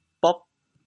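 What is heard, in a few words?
"bog8", Teochew, chaoyang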